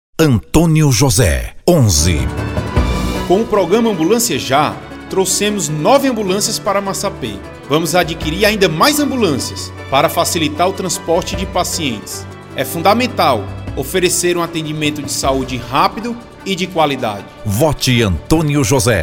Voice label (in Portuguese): Amostras spots insercoes